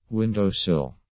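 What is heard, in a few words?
"window-sill", English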